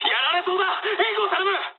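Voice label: aircraft damaged mood high, speech japanese; War Thunder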